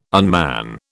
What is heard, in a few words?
unman, British